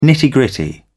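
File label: "nitty gritty", British English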